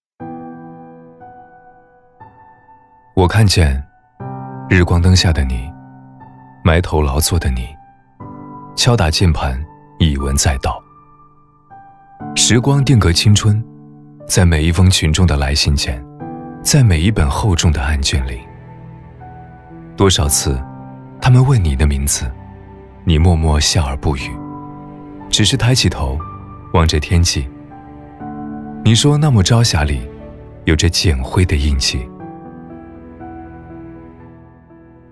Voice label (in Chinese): 男 专题 温暖 感人, 大气沉稳; 向祖国致敬